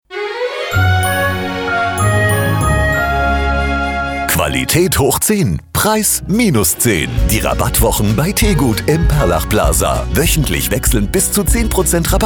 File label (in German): Funkspot Filiale; Tegut